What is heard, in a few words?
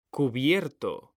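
"CUBIERTO", Spanish; mekanta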